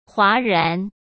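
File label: "哗然", Mandarin Chinese; hsk; chinese-flashcards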